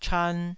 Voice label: "chan2", taiwanese